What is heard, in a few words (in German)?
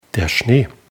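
"schnee", German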